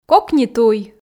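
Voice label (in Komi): кокни туй, Komi, female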